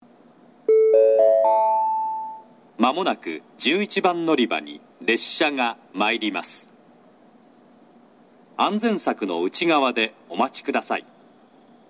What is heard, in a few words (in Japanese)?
11番のりば接近予告放送（当駅止まり→回送列車）; takeo onsen